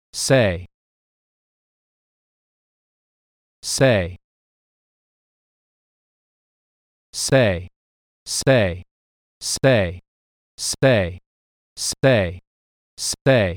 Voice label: Silence in Speech